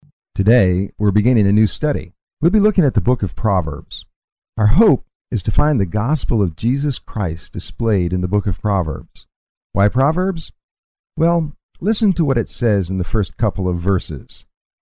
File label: english, a proverb a day english audio bible